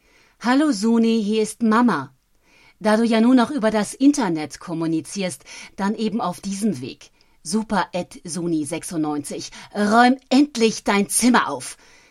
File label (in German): Werbung Radio; geht ins Ohr bleibt im Kopf